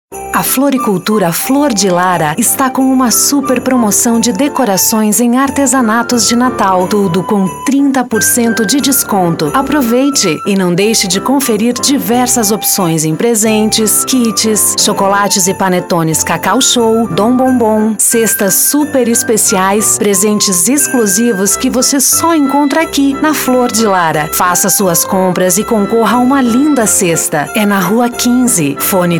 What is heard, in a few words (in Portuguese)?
locutora; voxmidia